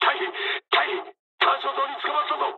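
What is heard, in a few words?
searchlite mood low, speech japanese; War Thunder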